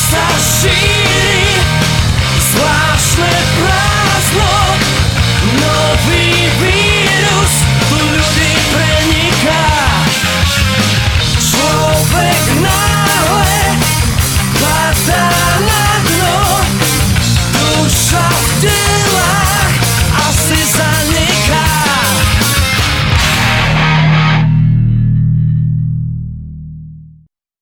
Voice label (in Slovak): Spot radio koncert